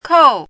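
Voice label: "kòu", Mandarin Chinese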